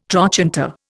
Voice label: "trochanter", Indian